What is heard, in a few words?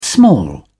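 "small", English, British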